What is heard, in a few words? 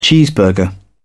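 "cheeseburger", British English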